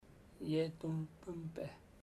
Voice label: I dont wash my hands, chamacoco; Bahia Negra